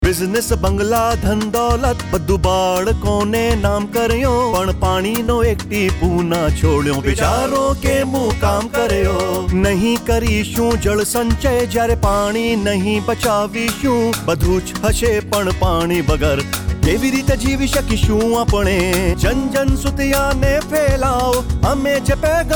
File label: gujrati; Water Conservation